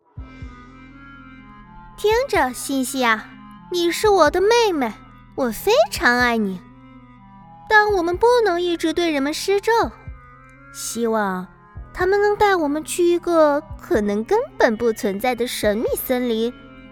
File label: chinese mandarin video games